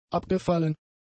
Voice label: "abgefallen", German; adjectives